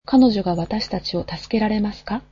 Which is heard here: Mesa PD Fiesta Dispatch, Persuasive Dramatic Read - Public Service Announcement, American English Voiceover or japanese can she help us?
japanese can she help us